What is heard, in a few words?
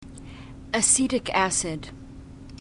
"acetic acid", glossary